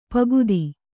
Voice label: "ಪೋಕ್ತ", Kannada

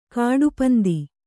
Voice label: "ಕಡುಪಂಥ", Kannada

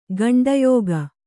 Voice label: "ಗಂಡಯೋಗ", Kannada

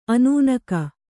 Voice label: "ಅನ್ನೆಗ", kannada

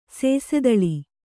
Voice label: "ಸೇಸೆದಳಿ", Kannada

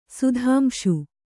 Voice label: "ಸುಧಾಂಶು", Kannada; sudhāmśu; noun; = ಸುಧಾಕರ.

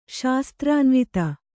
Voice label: "ಶಾಸ್ತ್ರಾನ್ವಿತ", Kannada

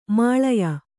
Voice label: "ಮೇಲಾಯ", Kannada

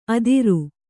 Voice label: "ಅದಿರು", Kannada

